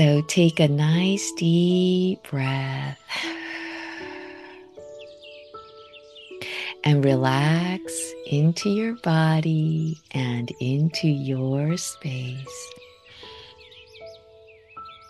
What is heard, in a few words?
Chakra Scan Meditation; Soul Star and Earth Star Chakra Tuning Fork Meditation